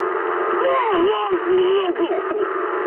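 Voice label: English damaged pilot mood low; War Thunder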